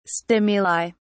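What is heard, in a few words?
"stimuli", British English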